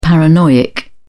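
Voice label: "paranoiac", British English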